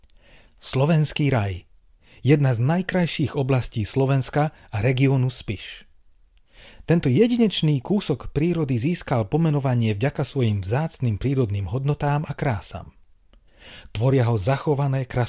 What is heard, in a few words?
Slovak